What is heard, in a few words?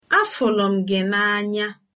i love you, igbo